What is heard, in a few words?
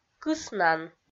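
"кыснан", Komi, female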